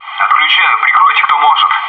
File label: "defusing bomb now", bot